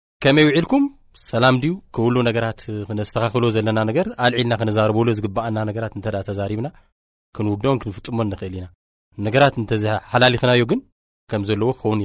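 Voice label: Tigrinya, male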